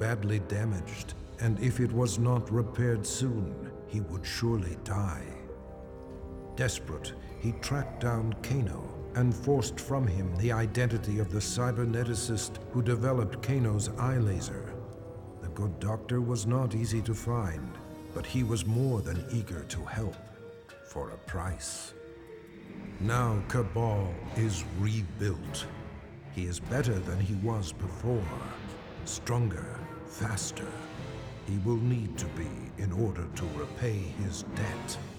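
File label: music voice-over endings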